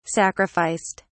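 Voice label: "sacrificed", English, British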